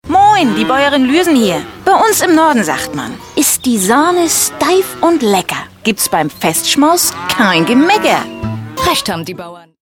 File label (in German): norddeutsch; hansano